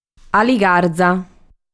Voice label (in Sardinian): "Aligarza", sardu